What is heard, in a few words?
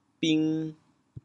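"bing2", Teochew, chaoyang; 潮阳; 品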